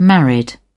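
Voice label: "married", British English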